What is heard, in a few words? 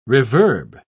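"reverb", British English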